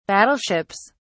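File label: "battleships", British English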